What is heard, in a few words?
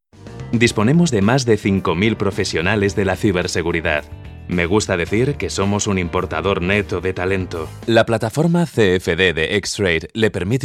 spanish castilian training e-learning